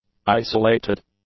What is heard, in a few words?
"isolated", english; adjectives